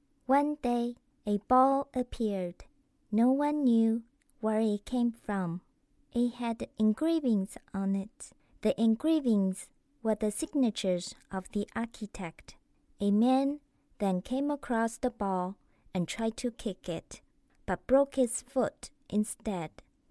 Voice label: English Foreign English Accent

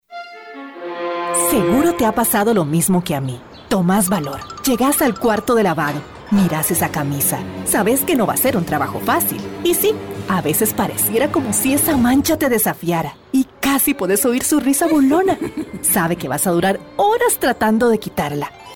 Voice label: Spanish Neutral

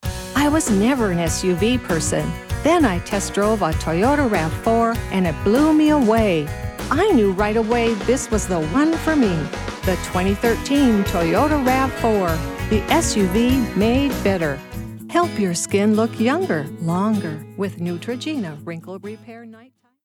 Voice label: English USA

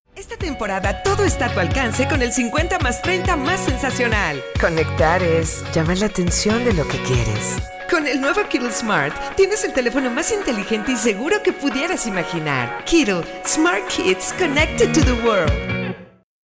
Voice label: Spanish Latin

